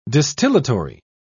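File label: "distillatory", British English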